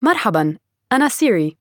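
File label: Arabic, Saudi Arabia; iOS Sounds; soha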